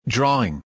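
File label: "drawing", English word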